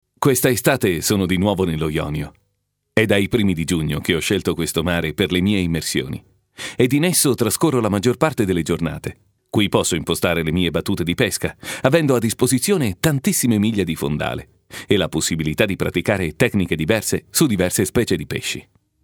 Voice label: ITALIANO IMPOSTATO; FEMMINILE; Speaker Madrelingua